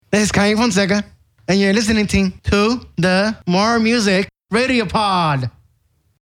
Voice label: promo short